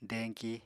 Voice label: "deñki", Apatani